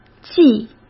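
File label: "继", Mandarin Chinese; hsk; chinese-flashcards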